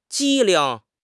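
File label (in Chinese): "机灵", Chinese; HSK6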